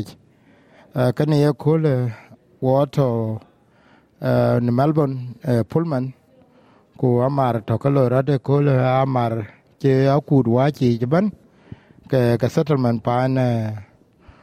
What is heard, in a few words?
dinka; SBS Dinka